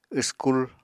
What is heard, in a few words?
"wskul", Apatani